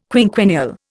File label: "quinquennial", Indian